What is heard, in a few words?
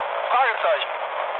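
German enemy detected mood low, speech; War Thunder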